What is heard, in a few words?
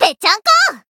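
Voice Japanese; may; finish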